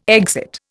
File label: "exit", Indian